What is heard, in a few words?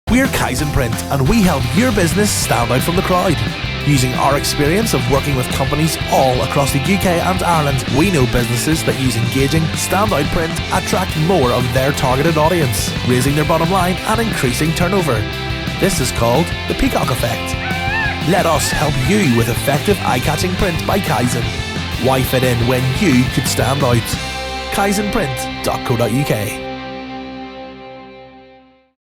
uk stand out advert